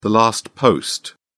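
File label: "last post", British English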